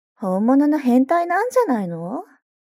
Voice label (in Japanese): mob 女子 台詞